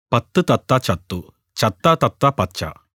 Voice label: Malayalam Recordings